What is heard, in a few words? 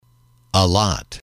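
"a lot", English, male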